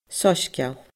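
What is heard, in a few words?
"soisgeul", Scottish Gaelic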